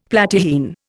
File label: "Platyrrhine", Indian; Dictionary